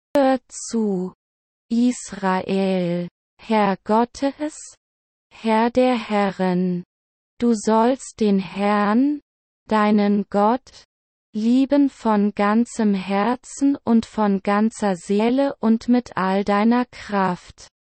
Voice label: GERMAN; deut 6 4 5

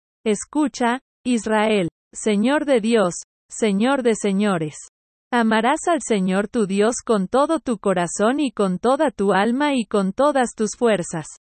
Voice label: SPANISH; deut 6 4 5

